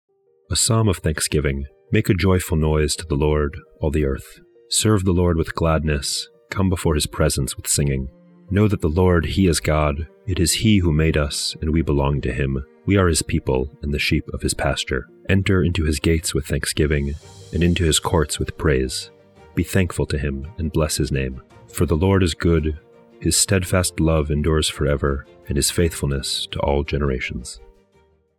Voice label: poetry and prayer psalm english